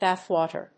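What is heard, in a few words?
"Bath water", English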